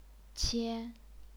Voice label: "qian1", chinese pinyin